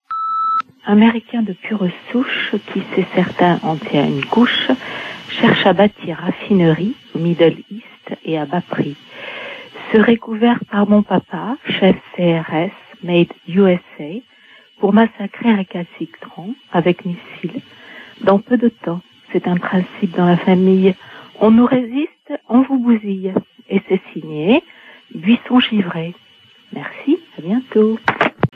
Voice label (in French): Irak repondeur